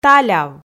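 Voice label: "таляв", Komi, female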